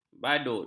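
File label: "badut", Malay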